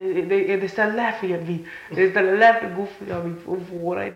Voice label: Conversation with 17 year old male New York New York, american english dialect